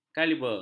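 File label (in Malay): "kaliber", Malay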